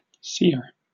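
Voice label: "seer", British English; pronunciations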